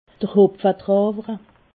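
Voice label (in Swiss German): "hopfedrawer", Alsatian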